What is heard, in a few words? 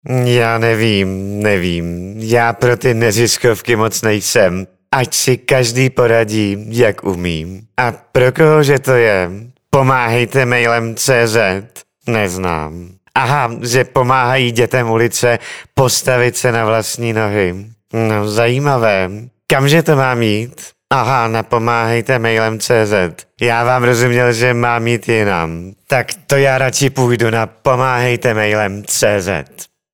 Spot